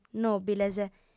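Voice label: "nòbiles", female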